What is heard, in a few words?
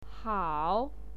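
"hao", Mandarin Chinese pinyin, third tone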